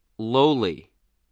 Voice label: "lowly", spelling